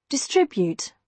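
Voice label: "distribute", British English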